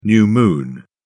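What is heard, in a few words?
"new moon", British English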